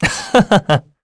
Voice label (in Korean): Vox Happy, kr; Esker; Kings Raid